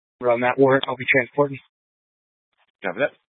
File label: Incident Tac 3, scanner